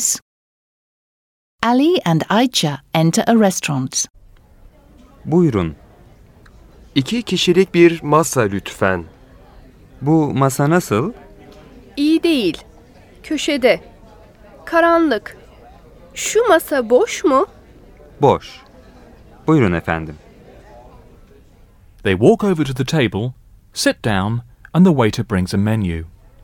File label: Dialogue, Turkish; Complete Turkish Teach Yourself